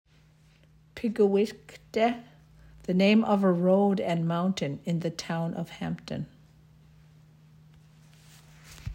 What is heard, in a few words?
Pickwauket English translation